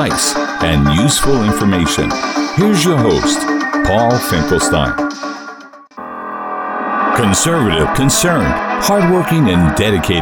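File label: Podcast Samples, Voice Over Demos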